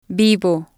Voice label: "vivo", Spanish